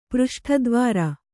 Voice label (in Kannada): "ಪೃಷ್ಠದ್ವಾರ", Kannada